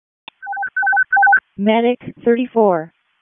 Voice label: EMS ALERT, p25